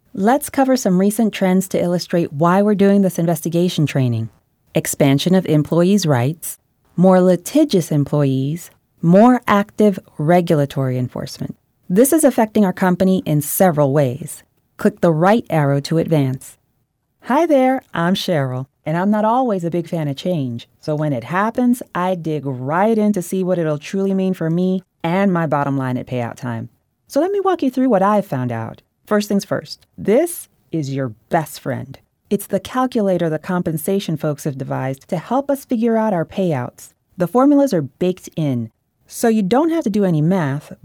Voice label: E Learning